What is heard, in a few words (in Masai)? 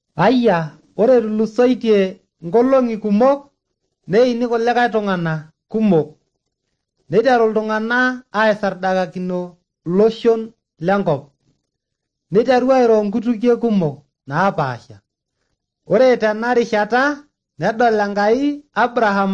maasai bsv nt drama; good news; bible